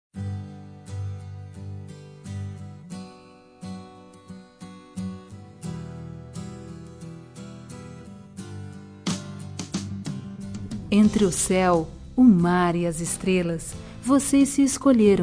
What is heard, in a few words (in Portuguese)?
Parabéns pelo casamento - fem.; Datas importantes